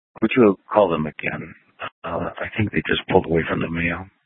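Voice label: Scottsdale PD North Dispatch; Nth Dispatch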